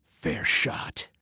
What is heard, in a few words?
Great Shot, Male